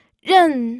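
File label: "ren", Mandarin Chinese, fourth tone; pinyin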